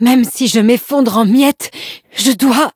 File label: defeat, fr; cookie run